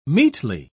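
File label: "meetly", English, British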